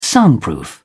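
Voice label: "soundproof", British English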